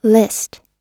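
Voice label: "list", English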